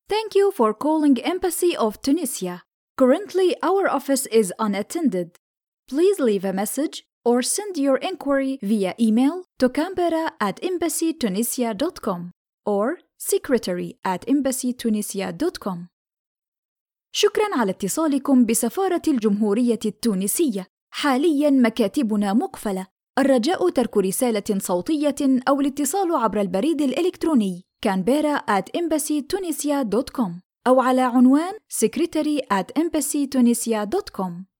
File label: arabic ivr